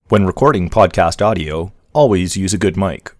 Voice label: AudioTechnica